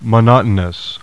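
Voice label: "mono", English; Heroic Tales: Theseus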